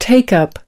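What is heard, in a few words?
"take something up", English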